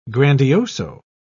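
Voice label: "grandioso", English, British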